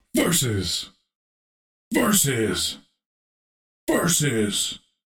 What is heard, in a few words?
Versus Fight Announcer Vo Multiple Takes Wet